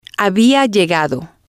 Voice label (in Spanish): "había llegado", Spanish